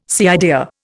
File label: "cider", Indian